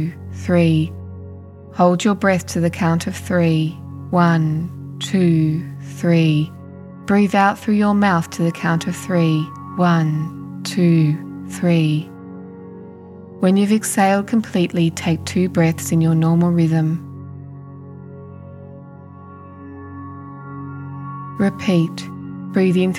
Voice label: Breathing Exercise female voice